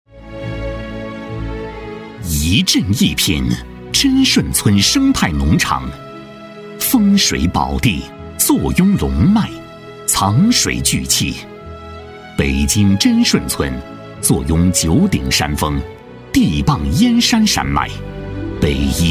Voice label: 男 专题「浑厚」; 生态农场

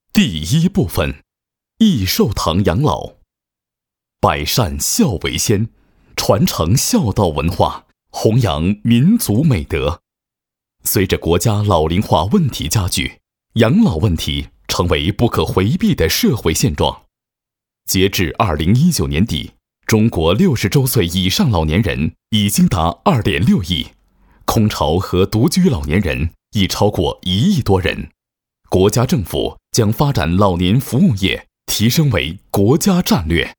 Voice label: 男 专题 养老 成熟 磁性; 益寿堂养老

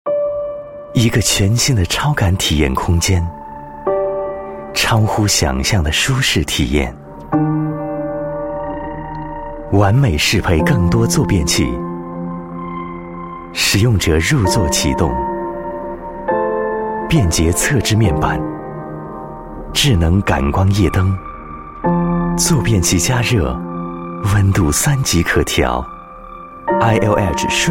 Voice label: 男 专题 国语男声配音

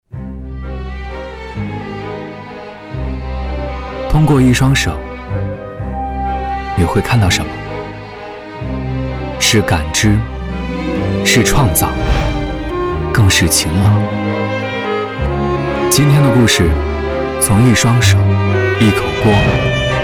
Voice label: 男 纪录片; 中文宝盒

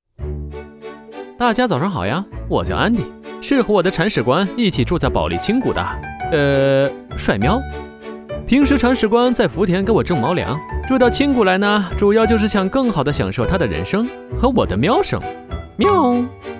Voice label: 男

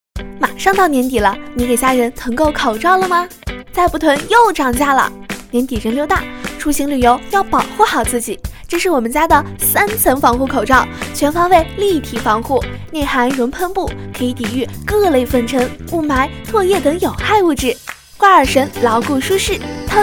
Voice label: 女 促销广告; 口罩

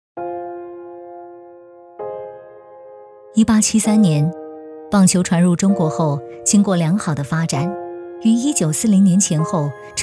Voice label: 女 纪录片; 熊猫棒球队历史馆

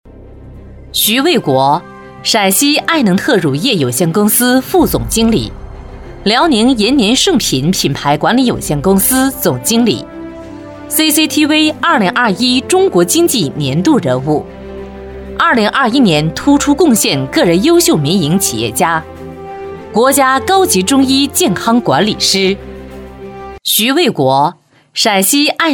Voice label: 女 专题 铿锵有力 人物介绍

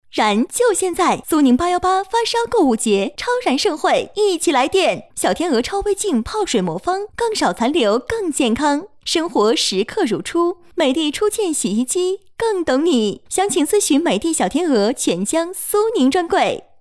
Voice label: 女 电台广告 购物节; 苏宁818发烧购物节

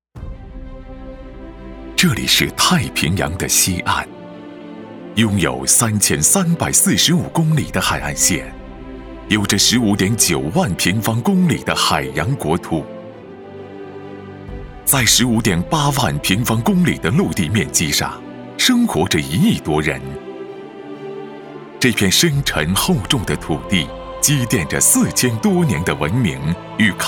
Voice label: 男 专题片 政府 宣传片

